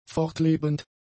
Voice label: "fortlebend", German; adjectives